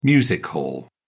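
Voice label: "music hall", British English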